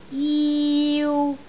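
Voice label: "jiu3", Cantonese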